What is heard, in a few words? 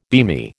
"beamy", American